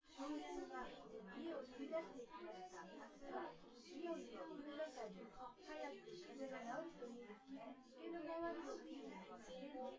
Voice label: female synthconv

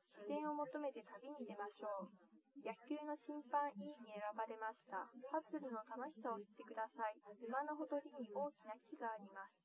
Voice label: test female liverec 130ms 5cm sim; SiSEC08; SiSEC underdetermined

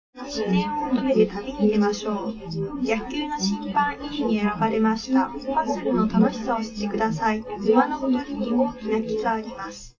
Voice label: female live rec 1m sim; SASSEC07

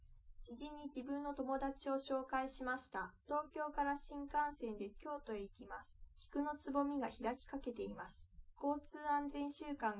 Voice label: female liverec 250ms 1m sim; SiSEC08; SiSEC underdetermined

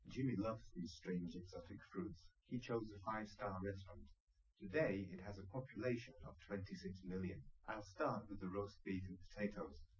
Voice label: male liverec 250ms 5cm sim; SiSEC08; SiSEC underdetermined

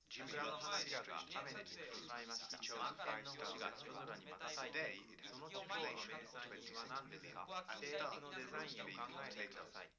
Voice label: male4 liverec 250ms 5cm sim

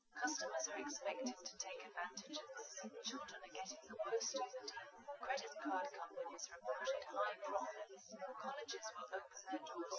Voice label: female liverec 250ms 5cm

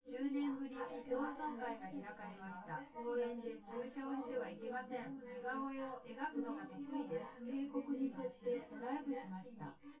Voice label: female synthconv

